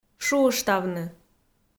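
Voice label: "шуыштавны", Komi, female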